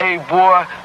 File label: "hey boy", voice; ooh ahh